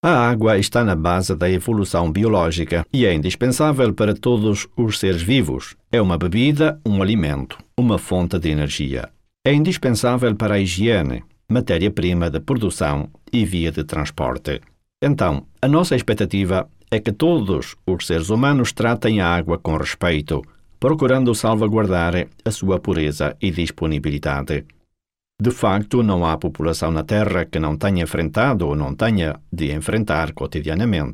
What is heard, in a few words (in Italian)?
portoghese